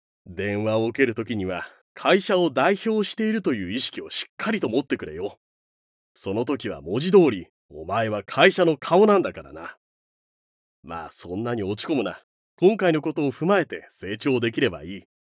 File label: male; 部下を叱る上司